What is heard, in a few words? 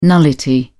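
"nullity", British English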